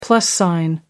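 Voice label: "plus sign", British English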